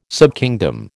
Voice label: "subkingdom", American